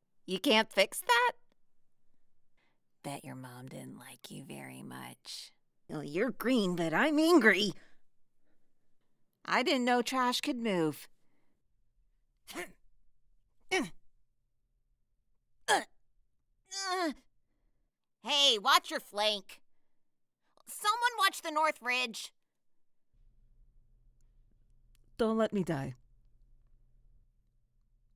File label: Recruit Female